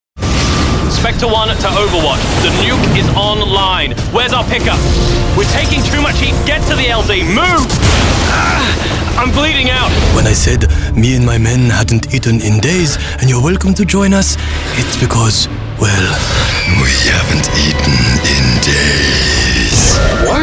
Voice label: gaming, London